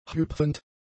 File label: "hüpfend", German; adjectives